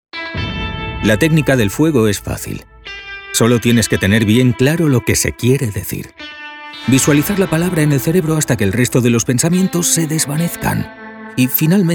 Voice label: SPANISH MALE; STYLE OUTLETS

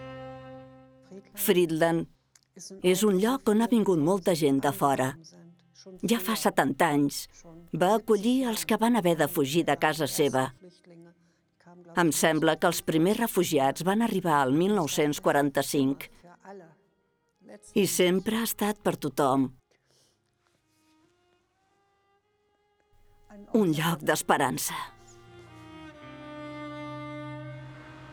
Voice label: CATALAN FEMALE institutional

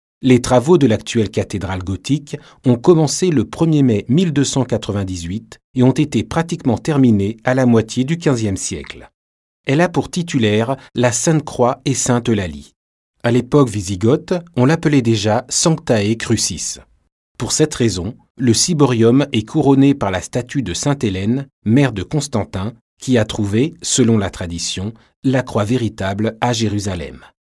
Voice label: audioguide, French, male

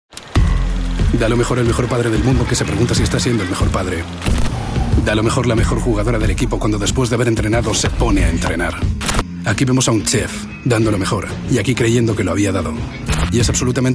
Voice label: FRENCH, MALE

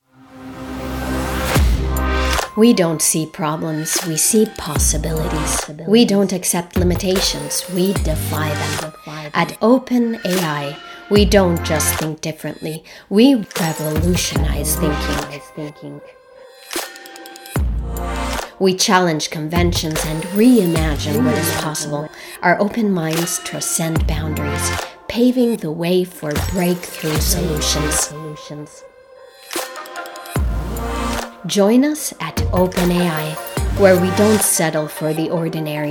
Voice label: CORPORATE, ENGLISH US FEMALE

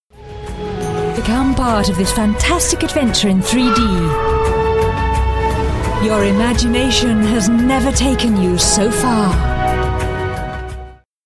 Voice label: advertising, ENGLISH UK FEMALE

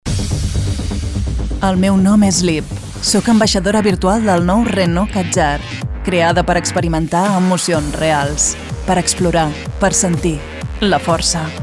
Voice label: commercial, CATALAN FEMALE

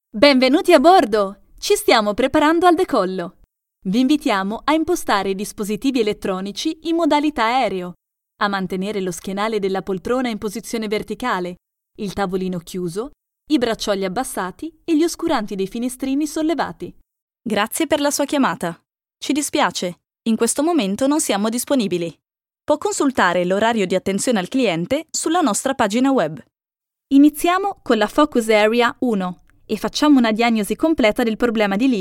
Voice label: elearning, Italian, female

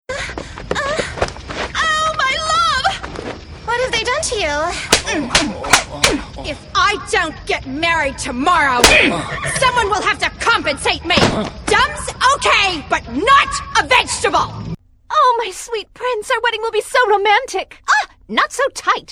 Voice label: YOUNG FEMALE, ENGLISH US

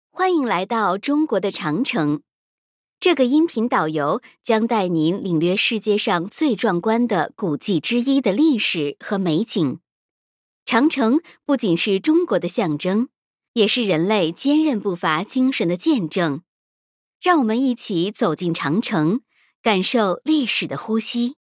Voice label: CHINESE FEMALE

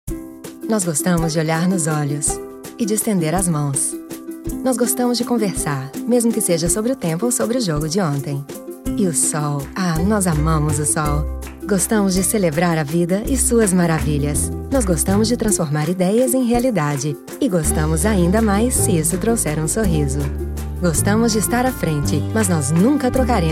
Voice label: advertising, PORTUGUESE FEMALE

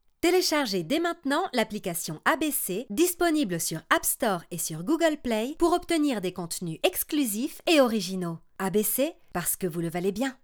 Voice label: CORPORATE, FRENCH FEMALE

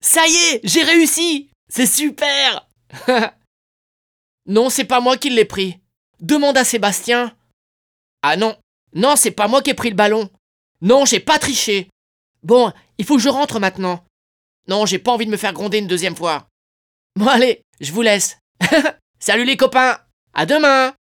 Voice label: animation, French, male

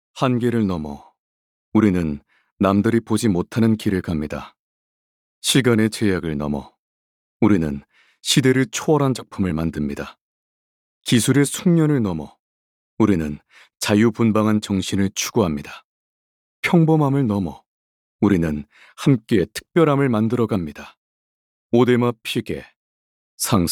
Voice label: Korean, male

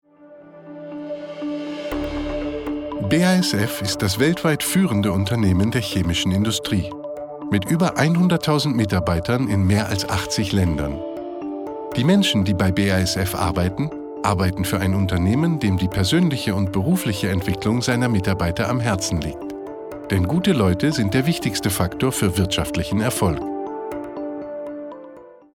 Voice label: corporate, german, male

